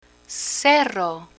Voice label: "cerro", Spanish